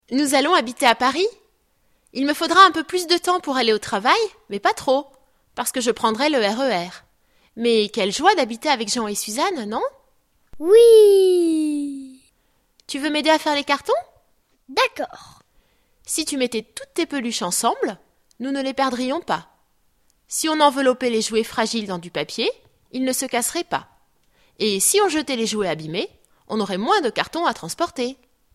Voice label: dialogue, French